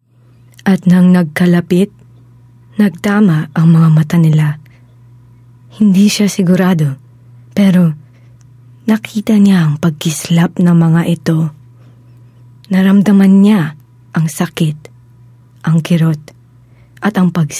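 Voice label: Tagalog (Dramatic, Emotional, Forlorn)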